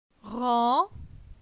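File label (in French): "Ran", French; phonetique; la syllabe an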